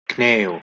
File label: "cneo" Anglo Saxon